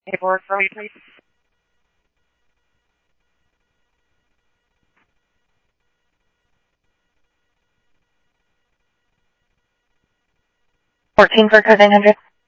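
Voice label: Scottsdale Police Department PD2 Scottsdale Police, scanner audio; H-Scottsdale; SCTSDLE PD - PD2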